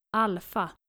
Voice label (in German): "alfa", daenische, Aussprache